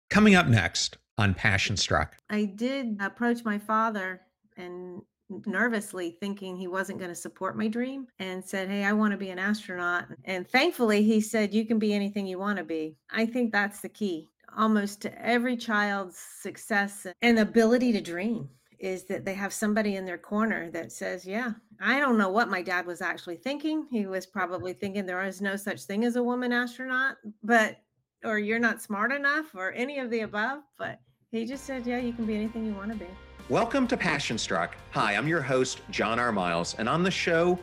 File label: PODCAST; passion struck podcast